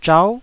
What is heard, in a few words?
"zhau9", Taiwanese syllable